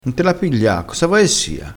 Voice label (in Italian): "pigliassela", crespinese, vocabolario dialettale